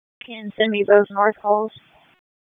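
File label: Police Northeast District Dispatch; Raleigh; wake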